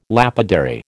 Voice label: "lapidary", American